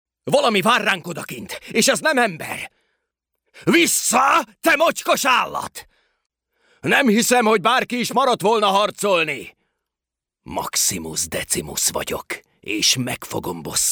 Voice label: male hungarian voice over talent character

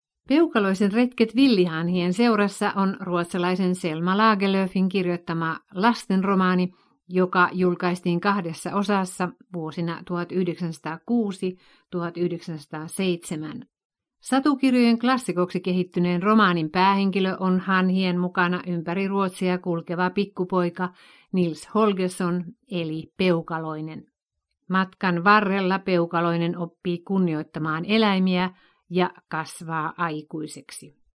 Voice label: female finnish voice over narrative

